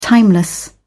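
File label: "timeless", British English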